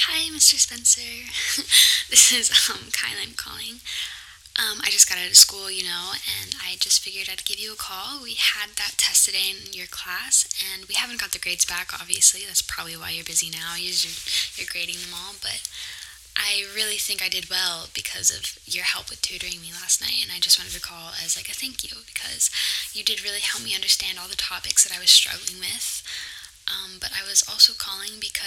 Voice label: Phone Message

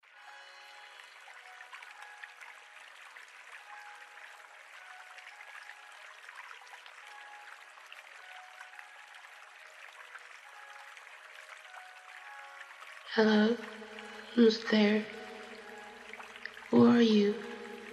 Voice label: ASMR Roleplay Ghost Forest Girl Comforts You; Lewd; R18+

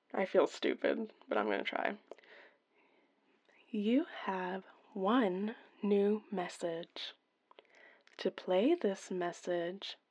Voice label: F4M Voicemail outtake; [F4M] Straight to Voicemail; [Established relationship]

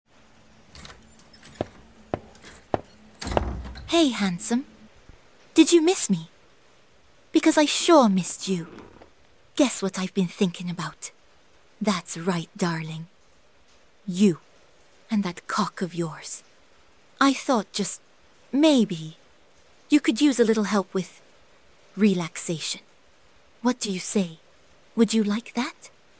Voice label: Playful Countdown, Teasing, Sensual; [F4M] Playful JOI Countdown; [With Rain]

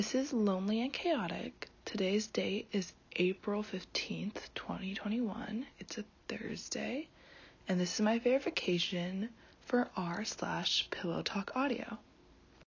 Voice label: F Pillowtalk Verification

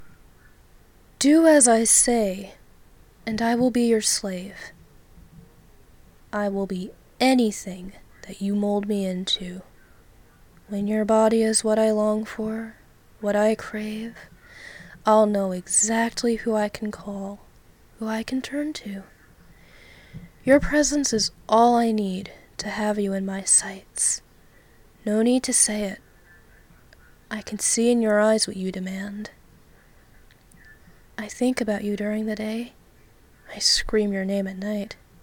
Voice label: Do As I Say [Erotic poetry]

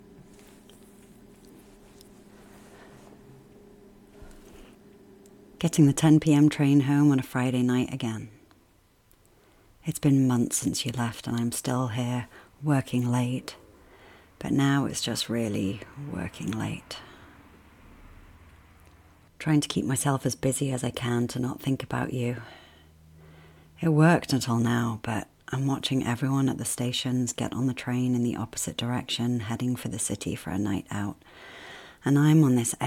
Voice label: f4m narrative British Accent soft; office worker; missing you; The Train

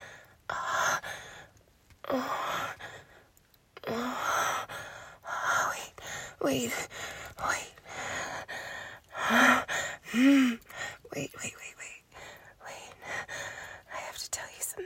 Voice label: breathy, sweet; F4M; Promise not to laugh; virginal; tease

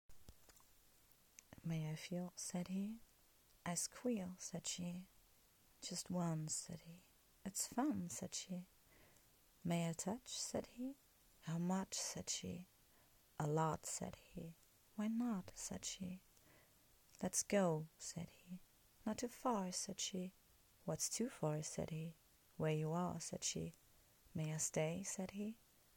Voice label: Poetry Reading Accent; May I Feel Said He by e.e.Cummings

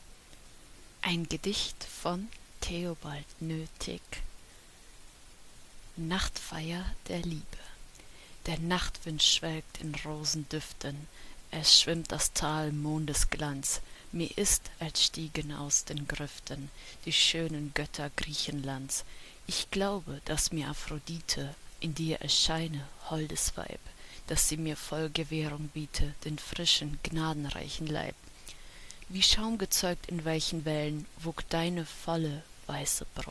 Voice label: F4A, Poetry, Erotic, German, Accent; Lust; Nachtfeier der Liebe by Theobald Noethig; Love

